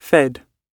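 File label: "fed", British English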